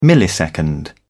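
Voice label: "millisecond", British English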